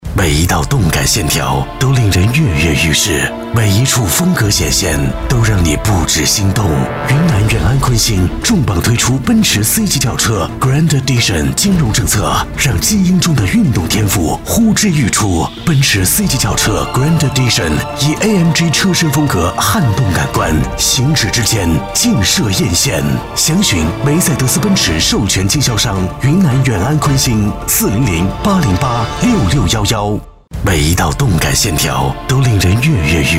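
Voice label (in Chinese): 男S347 国语 男声 广告-汽车宣传片-品质大气 大气浑厚磁性 沉稳; 奔驰C级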